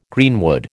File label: "greenwood", American